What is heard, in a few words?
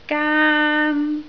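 "gaan1", Cantonese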